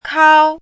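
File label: "kāo", Mandarin Chinese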